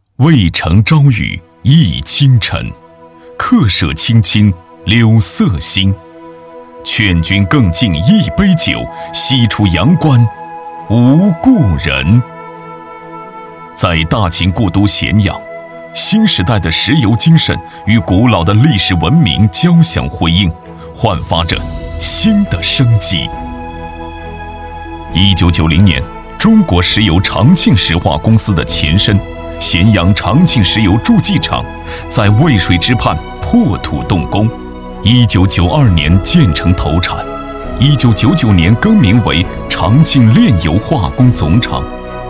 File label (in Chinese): 男 宣传片 大气舒缓